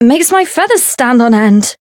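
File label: encounter fear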